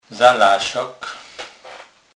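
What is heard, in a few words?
"zalasak", Kurpie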